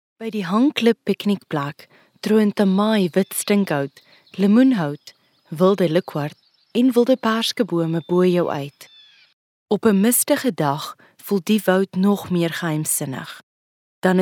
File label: narration